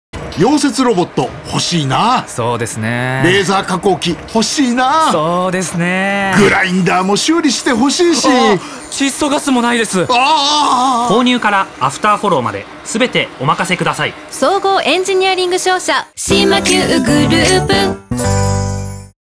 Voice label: Radio CM; シマキュウグループ